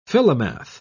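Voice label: "philomath", British English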